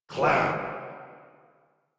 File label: Banter; Warframe; Clem; en